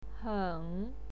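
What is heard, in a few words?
"heng", Mandarin Chinese pinyin, third tone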